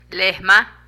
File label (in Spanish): Nosotro Inclusivo, Zapoteco de Petapa; Parentesco; Vocabulary